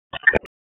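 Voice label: Raleigh Police North District Tactical; wake; p25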